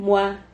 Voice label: "mua", Silabas

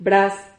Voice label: "braz", Silabas

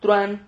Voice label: "truan", Silabas

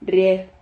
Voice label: "rriep", silabas

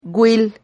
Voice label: "güil", Silabas

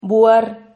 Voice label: "buhar", Silabas

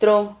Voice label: "tro", Silabas

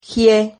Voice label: "gie", Silabas

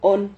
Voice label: "hon", Silabas

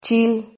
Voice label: "chil", Silabas

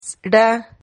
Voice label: "zra", Silabas

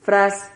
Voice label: "fraz", Silabas

